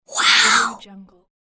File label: female child whispering "wow"; تعجب آهسته دختربچه; human sounds